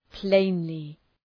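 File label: "plainly", English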